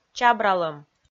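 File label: "чабралӧм", Komi, female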